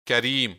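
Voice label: "karim", male; Карим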